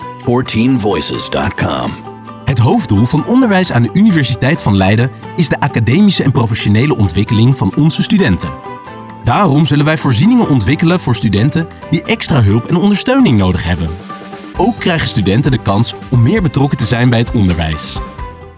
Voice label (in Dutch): Narratief; 14Voices